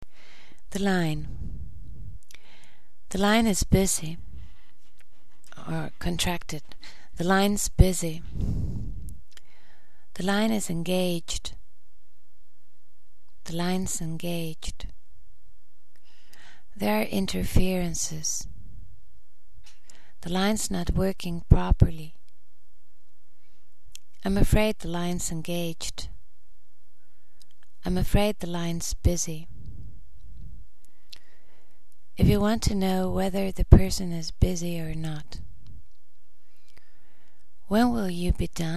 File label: phone